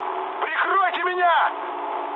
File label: aircraft damaged, mood med, speech ru